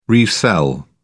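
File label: "resell", British English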